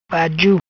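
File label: "Baju", patua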